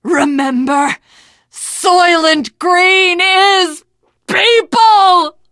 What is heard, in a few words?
teengirl, voice; Left 4 Dead 2; l4d1 finale